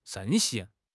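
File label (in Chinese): "盛行", Chinese; HSK6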